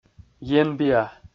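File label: "енбиа", Komi, male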